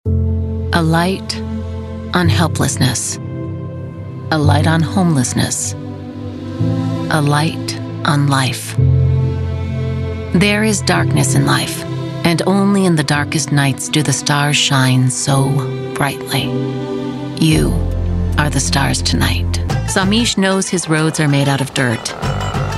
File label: Non Profit Voice Over